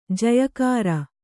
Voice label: "ಜಯಕಾರ", Kannada

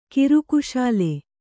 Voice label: "ಕಿರುಕುಶಾಲೆ", Kannada

